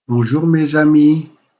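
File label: pronunciation "bonjour mes amies", French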